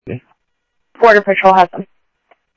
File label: Sheriff-WestCnty, scanner audio